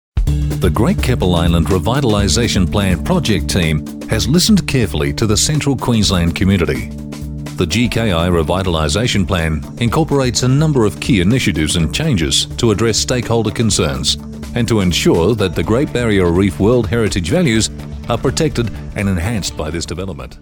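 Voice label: Australian English, male, corporate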